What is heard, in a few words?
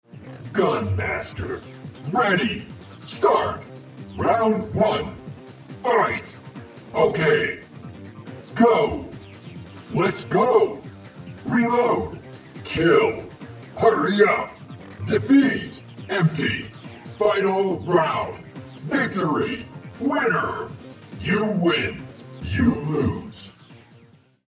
Casual Action Sound VOICE